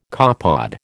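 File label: "copepod", American English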